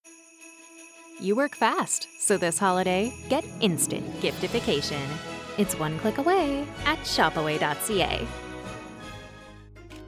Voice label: Commercial Voiceover